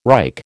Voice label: "Reich", American